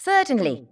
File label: Female; baldurs1